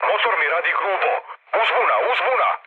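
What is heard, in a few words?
Serbian damaged engine mood med, speech; War Thunder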